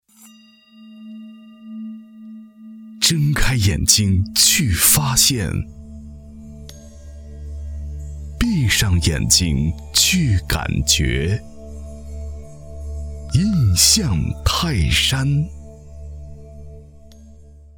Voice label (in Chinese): 片头 小样; 映像泰山